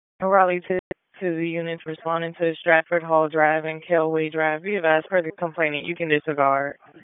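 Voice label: Raleigh Police Northeast District Dispatch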